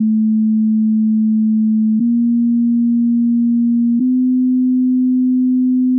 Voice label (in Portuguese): F0 infantil